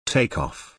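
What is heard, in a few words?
"take off" British English; Technology; Công nghệ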